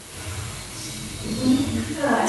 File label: Female response